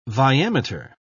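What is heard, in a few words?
"viameter", British English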